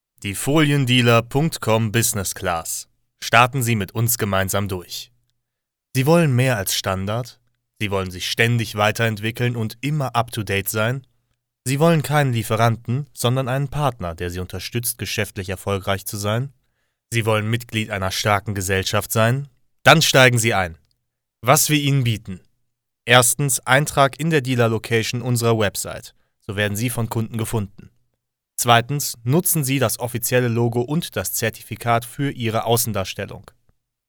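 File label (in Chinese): 德语 男声 干声; 外籍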